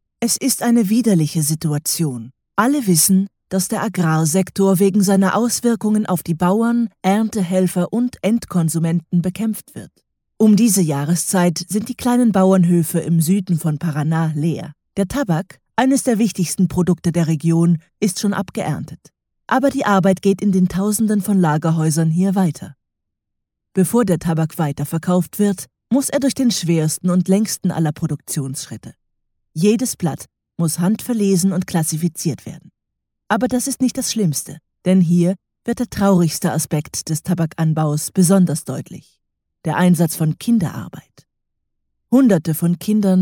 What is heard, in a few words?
german female; dictor